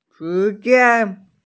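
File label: "shijian", Mandarin Chinese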